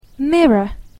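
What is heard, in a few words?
"mirror", English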